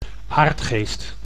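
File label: "aardgeest", Dutch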